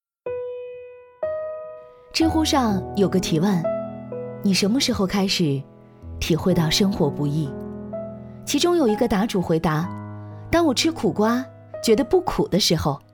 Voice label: 女 深夜电台; 被疫情偷走的三年

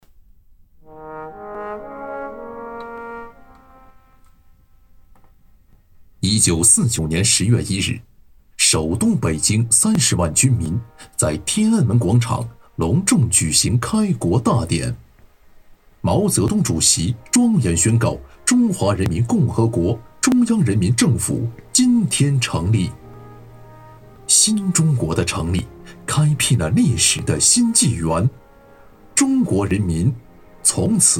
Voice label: 男 纪录片; 建党99周年

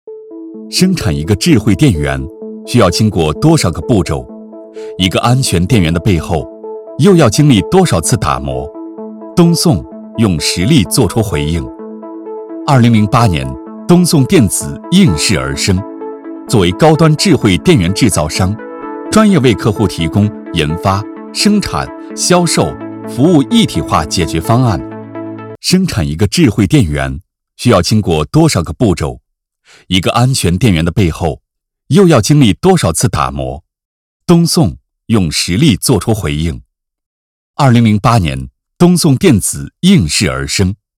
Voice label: 男 产品专题 介绍 轻松 交流感

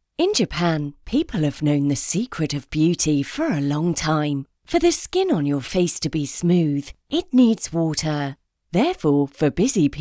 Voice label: 外籍英女 自然亲切 面膜广告

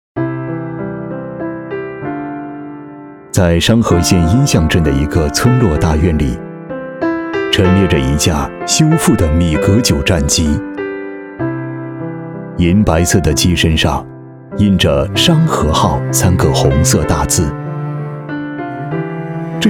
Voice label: 男 纪录片; 忆往昔峥嵘岁月

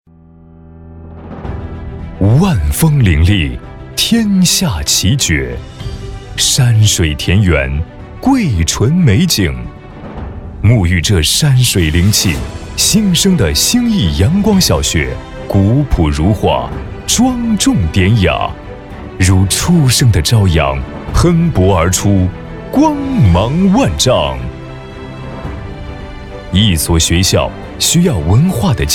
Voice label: 男 专题 大气 浑厚 学校宣传片; 兴义学校宣传片

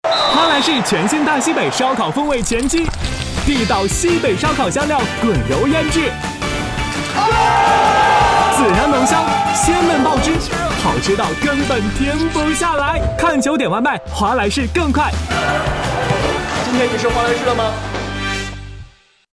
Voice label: 男 广告 激情; 华莱士

